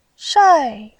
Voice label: "shai4", voice